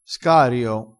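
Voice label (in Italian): "scario", dialettale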